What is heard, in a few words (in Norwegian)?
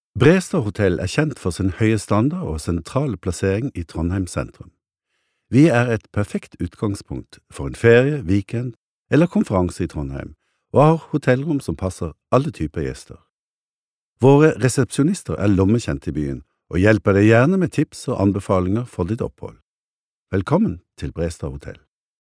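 no informativ, Norwegian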